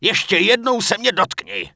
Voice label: Satyre Pissed; wowpreklad; czwow